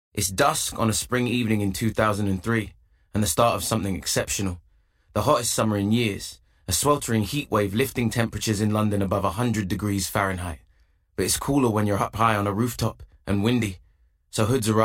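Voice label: Narrative, Male, Assured, Blokey, Bright, Conversational, Friendly, Warm; NARRATIVE REEL; United Voices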